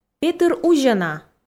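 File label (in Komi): петыр узьӧ на, Komi, female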